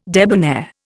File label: "debonair", Indian